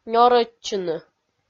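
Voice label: "нёрӧдчыны", Komi, female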